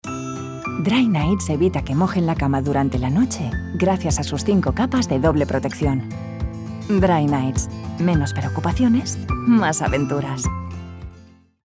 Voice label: commercial, spanish, female